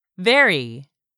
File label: "VARY", English; 3000 words